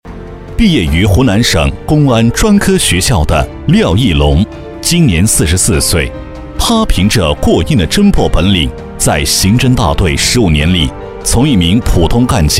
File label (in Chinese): 男 专题 人物介绍; 公安人